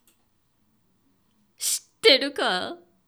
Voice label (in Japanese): 女性 shitteiruka